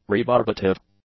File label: "rebarbative", English; adjectives